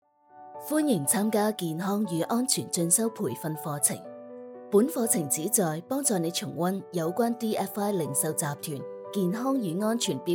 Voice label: female cantonese voice over narrative